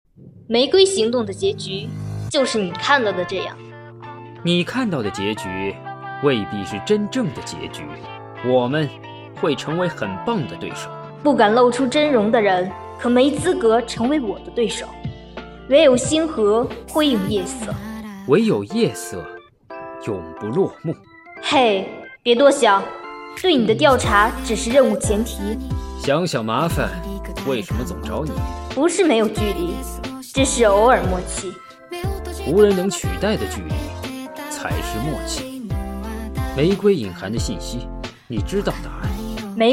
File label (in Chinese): 角色配音; 王者花木兰角色配音